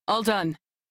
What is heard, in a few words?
Crew Task Done, Female; Railjack Crew; New Loka